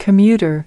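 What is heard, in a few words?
"Commuter", American English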